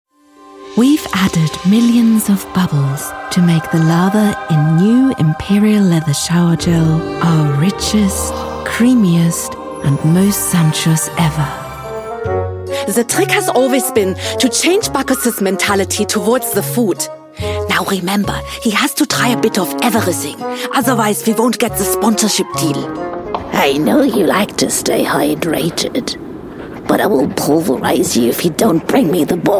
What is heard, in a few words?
Showreel English